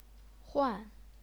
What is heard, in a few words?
"huan4", chinese pinyin